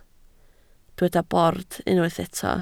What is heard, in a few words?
"bord", Welsh